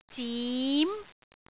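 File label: "zim2", Cantonese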